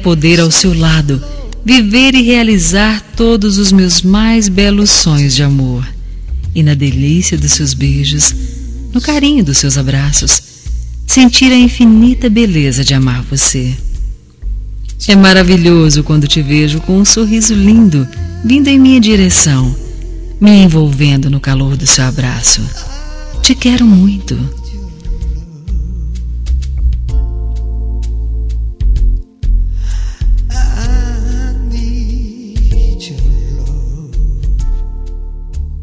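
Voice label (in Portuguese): Rom tempo de namoro, feminino; Telemensagens; Românticas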